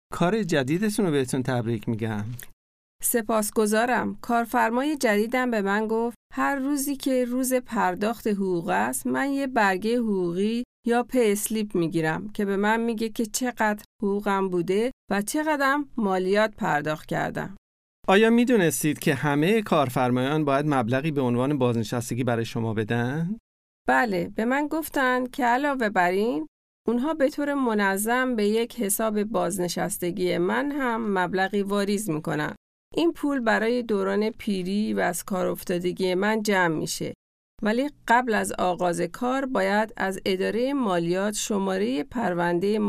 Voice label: working in australia farsi